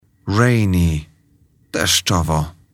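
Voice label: "rainy", English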